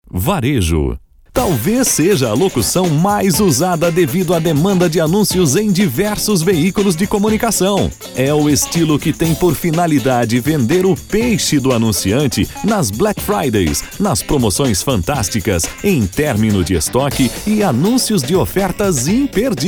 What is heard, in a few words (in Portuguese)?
Locução Estilo varejo com trilha